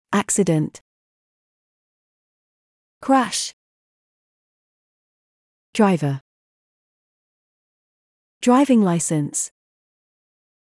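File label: British; wordbank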